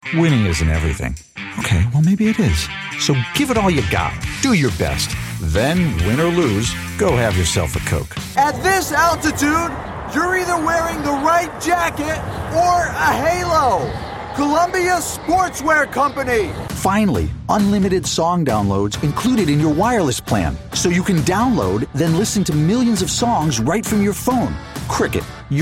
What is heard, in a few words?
Commercial VO